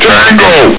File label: "tango!", hgrunt; hl2